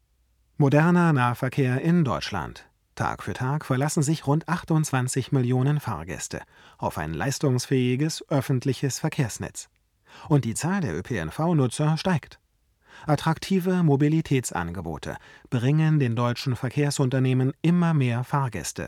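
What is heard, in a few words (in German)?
tlm67 bearbeitet, Sprecherraum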